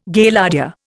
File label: "Gaillardia", Indian